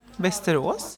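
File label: "Västerås", Swedish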